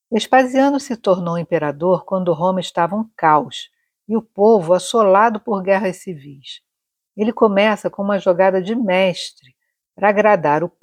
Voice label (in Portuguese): Audioguia; Coliseu; Vespasiano